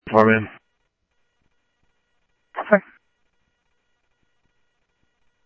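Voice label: Sheriff West County, scanner audio trunk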